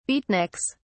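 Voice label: "beatniks", British English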